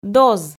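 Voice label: "доз", Komi, female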